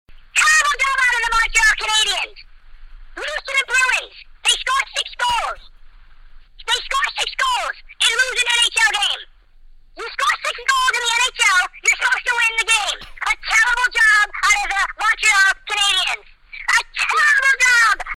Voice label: hockey rant; WFAN